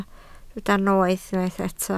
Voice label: "noeth", Welsh